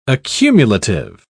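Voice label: "accumulative", British English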